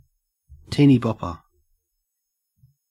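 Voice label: "teenybopper", Australian; pronunciations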